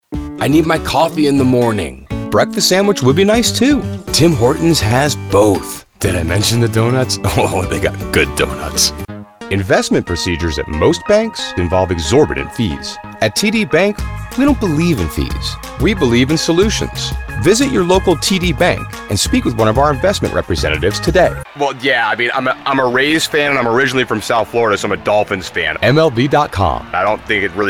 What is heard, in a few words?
Commercial